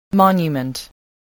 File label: "monument", voice, spelling